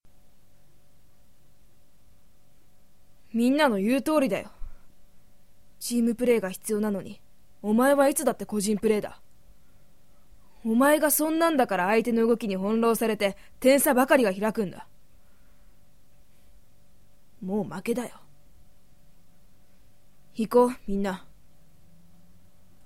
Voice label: Boy Leader